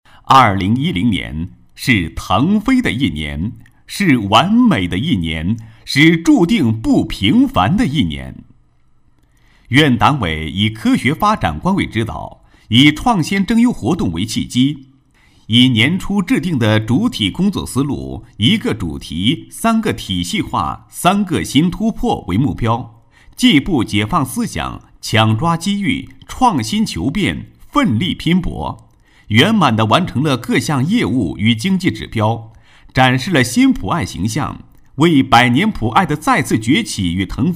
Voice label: 男 年度总结解说词, 高音, 国语男声配音; 盘点2010

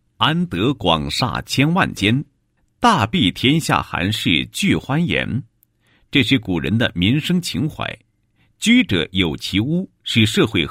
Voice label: 男 专题片; 吐鲁番市高昌区棚户区改造专题片

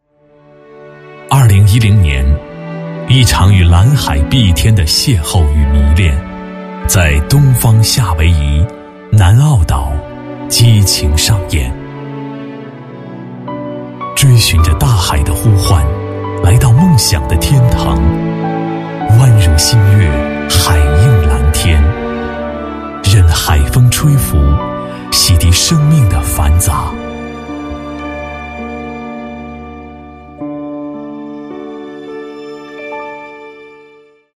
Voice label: 男 舒缓解说, 国语男声配音; 碧海蓝天

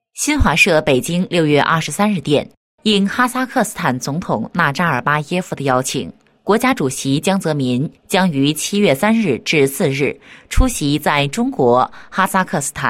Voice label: 女 新闻, 磁性女配; 国语女声配音; 新华社

